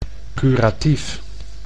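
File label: "curatief", Dutch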